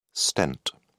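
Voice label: "stent", British English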